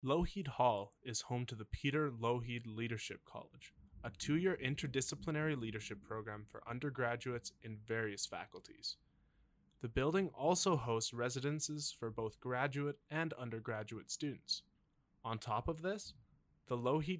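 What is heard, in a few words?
Lougheed Hall - English; NSO Tour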